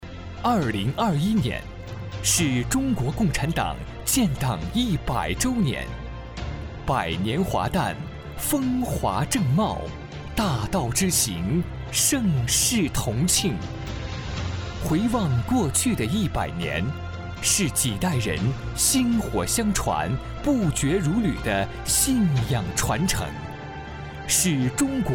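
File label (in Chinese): 男【专题片】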